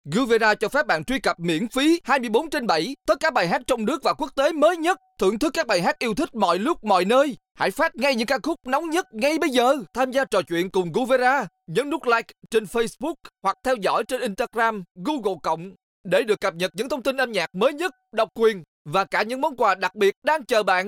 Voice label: Radio Ads